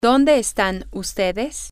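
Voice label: Spanish, female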